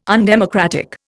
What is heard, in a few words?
"undemocratic", Indian